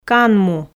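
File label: "канму", Komi, female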